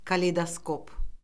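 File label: "kaleydoskop", Azerbaijani; Orfoqrafiya Lüğəti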